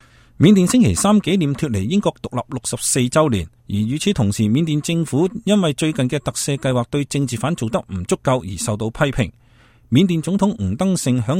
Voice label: cantonese; Burma